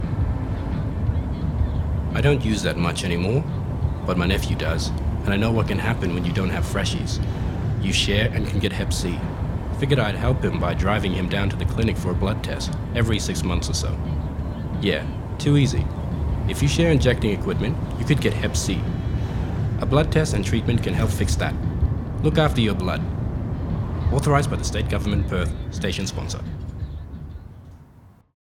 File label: Male English; Look after your blood campaign